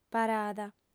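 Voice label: "parada" Sardinian, female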